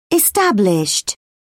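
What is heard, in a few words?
"established", British English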